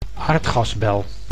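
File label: "aardgasbel", Dutch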